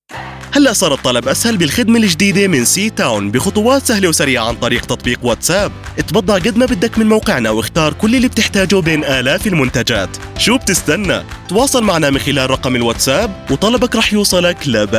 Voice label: Commercial Upbeat Happy Jordanian Arabic; CTown